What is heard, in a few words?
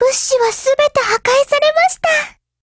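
operation over, Japanese; GF Alarm; Carcano1938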